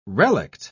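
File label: "relict", British English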